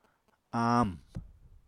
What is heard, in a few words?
"aamw", apatani